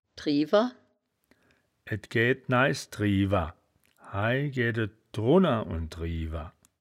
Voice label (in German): "driewer", wittlicher